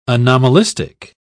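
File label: "anomalistic", British English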